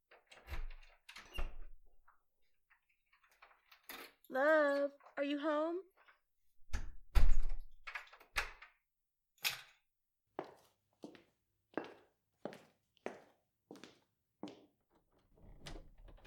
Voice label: F4A Caring For Your Migraine, Non-Erotic, Cuddling, Whispering, Comforting, Shoulder Rub; Soft Kisses; Gentle Snuggles; GFE